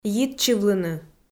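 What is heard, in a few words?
"йитчывлыны", Komi, female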